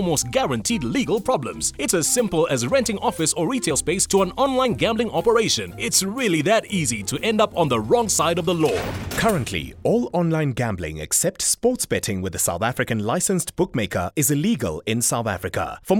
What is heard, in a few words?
Business English